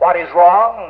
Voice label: "what is wrong", voice; questions

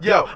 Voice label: "yo", voice

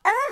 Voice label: "oh", cartoon; ooh ahh

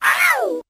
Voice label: ow female filtered; ooh ahh; ow ouch

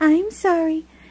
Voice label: "i'm sorry" girl; Voice; expletives

